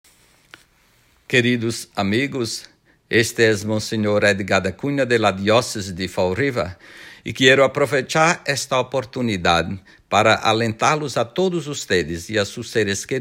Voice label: Vaccination PSA Spanish; COVID-19 vaccination